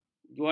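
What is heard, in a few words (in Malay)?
"jualan", Malay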